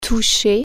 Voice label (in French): "touch", French